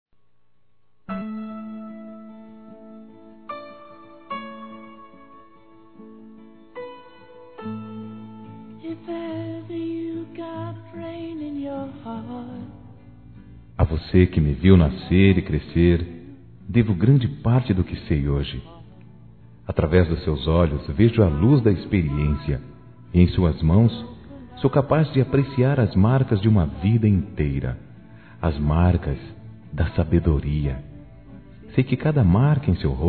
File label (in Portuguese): Aniversário Avô Masculino